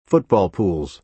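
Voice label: "football pools", American English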